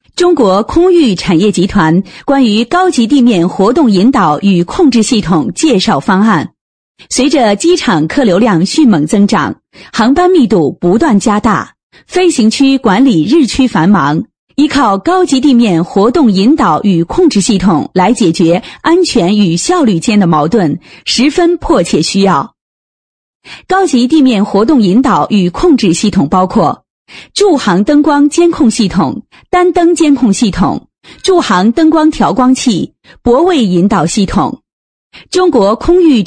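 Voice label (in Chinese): 女专题, 配音; 中国空域产业集团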